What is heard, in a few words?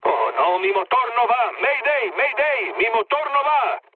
damaged engine, mood med, speech; War Thunder